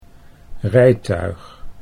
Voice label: "rijtuig", Dutch

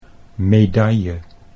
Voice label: "medaille", Dutch

